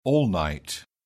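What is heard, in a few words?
"all night", English, British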